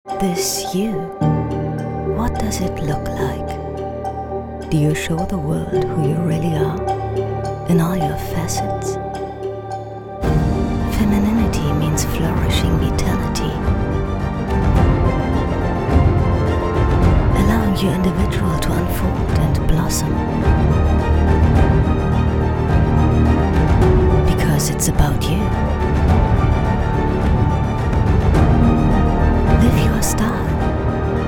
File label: Imagefilm EN Eyewear; Brendel